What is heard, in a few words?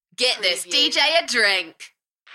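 UK female, Enthusiastic, DJ vocals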